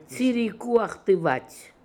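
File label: "Tsirikooakhtivat", Russian, pronounciations; Fourth Way; Beelzebub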